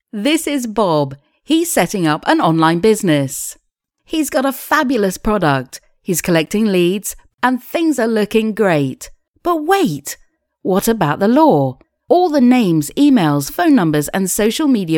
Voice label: uk